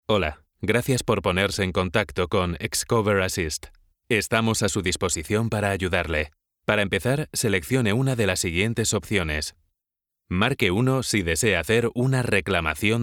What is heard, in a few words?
spanish ivr